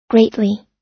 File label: "greatly", us